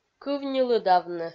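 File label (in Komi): "кывньылыдавны", Komi, female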